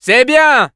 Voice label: Scout good job, fr; "C'est bien !"